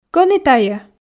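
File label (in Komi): кӧні тайӧ, Komi, female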